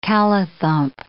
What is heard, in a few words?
"callithump", English